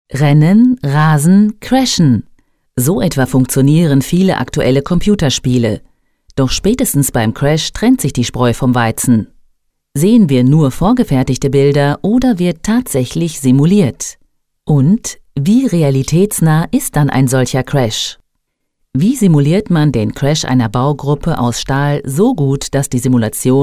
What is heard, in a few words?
corporate